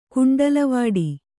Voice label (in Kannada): "ಕುಂಡಲವಾಡಿ", kannada